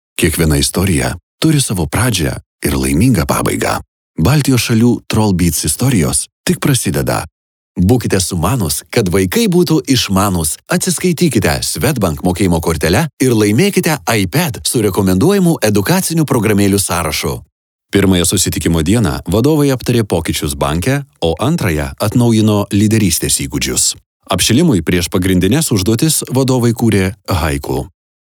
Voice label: lithuanian male